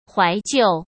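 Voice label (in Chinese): "怀旧", Mandarin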